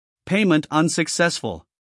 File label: EN PAYMENT UNSUCCESSFULL